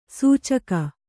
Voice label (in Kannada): "ಸೂಚಕ", Kannada